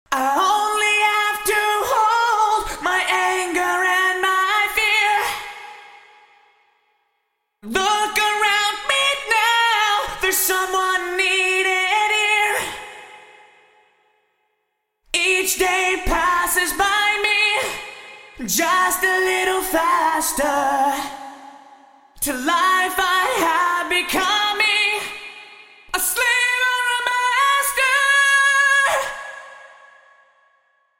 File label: Eventide SP2016 Vox Stereo Room